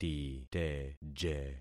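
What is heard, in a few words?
"titeje", concatenated syllables; Affixing experiment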